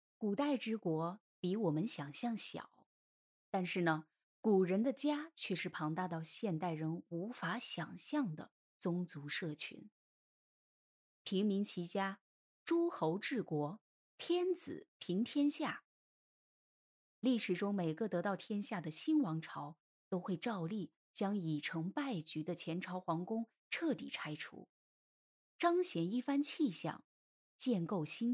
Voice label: 纪录片

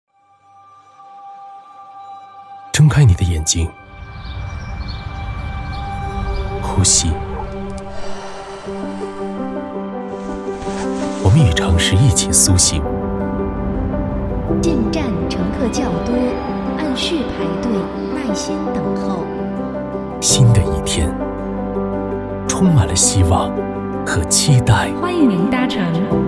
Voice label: 走心宣传; 北京轨道运营公司

